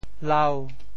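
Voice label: "lau5", Teochew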